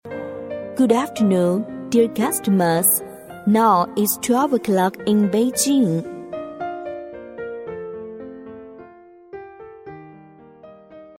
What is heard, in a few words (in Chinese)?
英女 解说 商场广播 英文温柔; 甜美亲切; 万达百货商场广播